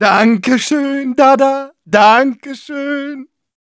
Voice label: positive vocalization, de